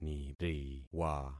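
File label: "niriwa", concatenated syllables; Affixing experiment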